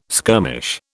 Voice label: "skirmish", British